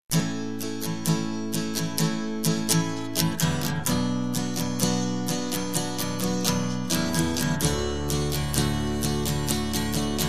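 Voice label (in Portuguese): Namorados pra Namoro Avancado, female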